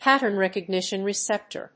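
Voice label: "Pattern recognition receptor", English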